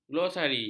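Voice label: "glosari", Malay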